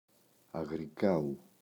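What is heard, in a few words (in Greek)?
"Αγροικάου", Greek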